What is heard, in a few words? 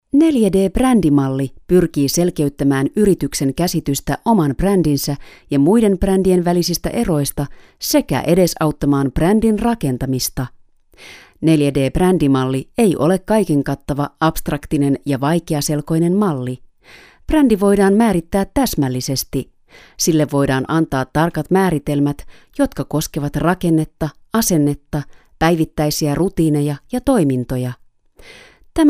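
Finnish, female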